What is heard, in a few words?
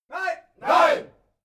military shout german "no"